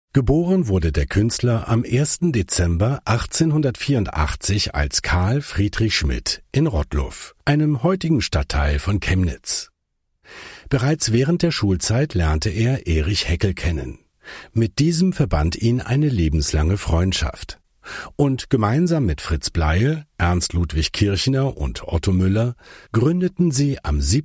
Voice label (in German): audioguide ausstellung; bruecke